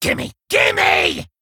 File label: Male Kobold Attack